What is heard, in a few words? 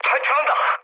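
Korean bailout mood low, speech; War Thunder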